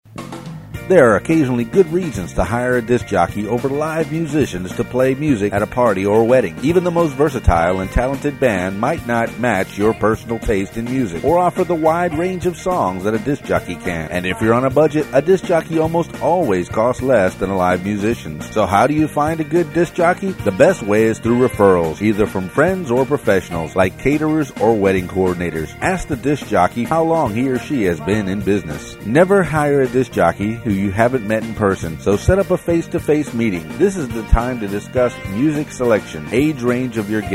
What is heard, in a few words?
DJ Commercial